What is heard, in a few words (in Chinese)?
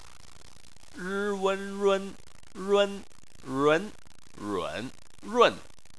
"run", Chinese